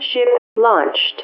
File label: intercom; ProjectMobius